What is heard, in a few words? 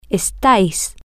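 yall are Spain temporary, Spanish, female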